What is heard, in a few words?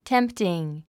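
"tempting", English word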